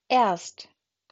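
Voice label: "erst", German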